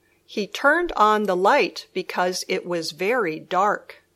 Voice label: dark sentence